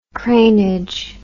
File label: "cranage", English